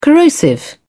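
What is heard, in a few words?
"corrosive", British English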